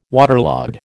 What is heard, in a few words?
"waterlogged", American